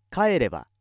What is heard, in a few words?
male